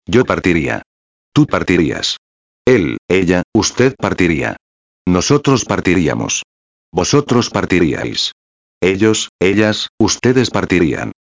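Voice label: verbo partir, condicional simple, Spanish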